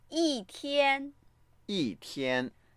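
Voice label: "yi1tian1", Mandarin Chinese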